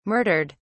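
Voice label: "murdered", British English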